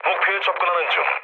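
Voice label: Korean near waypoint mood low; War Thunder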